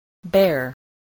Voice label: "bear", English